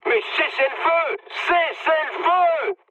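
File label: French player friendly fire mood med; War Thunder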